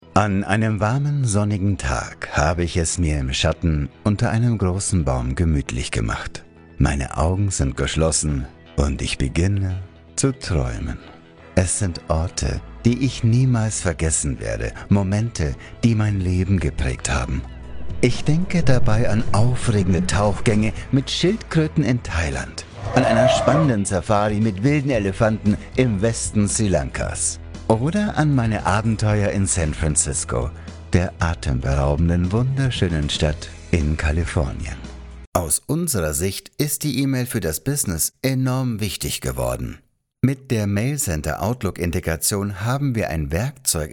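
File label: German, male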